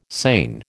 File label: "seine", American